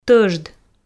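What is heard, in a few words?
"тӧжд", Komi, female; audio dict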